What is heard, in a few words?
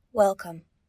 English Welcome